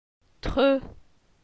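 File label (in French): "Tre", French; phonetique; la syllabe e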